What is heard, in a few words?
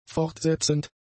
"fortsetzend", German; adjectives